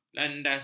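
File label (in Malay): "landas", Malay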